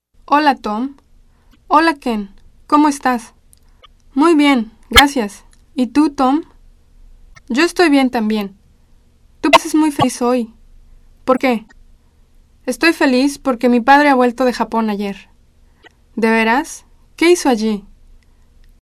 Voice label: spanish